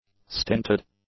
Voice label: "stinted", English; adjectives